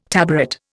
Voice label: "tabaret", Indian